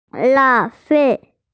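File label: "waste", Mandarin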